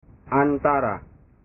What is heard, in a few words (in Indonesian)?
"antara", bahasa